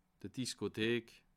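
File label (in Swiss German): "diskothek", Bas Rhin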